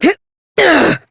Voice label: Horse Mount Adult, Dialog; Duviri

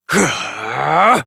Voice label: Abil Exert Adult en; Warframe; Duviri; Drifter; Melee

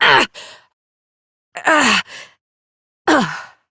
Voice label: Struggle Long Adult; New War; Operator

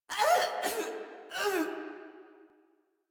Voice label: Death Female; Warframe; Modular Corpus Female

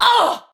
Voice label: Pain Ranged, Battle Barks; Tau; Tau Dax

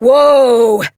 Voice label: Horse Stop Adult; Duviri

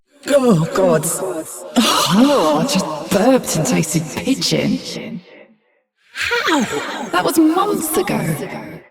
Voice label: Ambient Barks, en

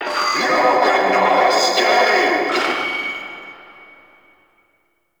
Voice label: Vocal Loudspeaker; Warframe; Duviri; Town Escape

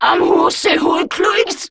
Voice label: Patrol, Grineer Female; Warframe; Battle Barks

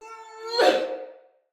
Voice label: Attack, Male, en; Sinecure Banneret

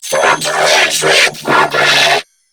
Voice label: Cover Me Grineer Female; en; New War; Narmer Grineer

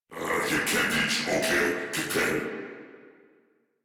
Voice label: Patrol, Battle Barks

